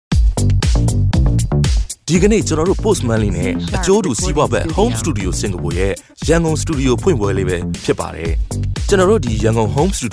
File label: Burmese Male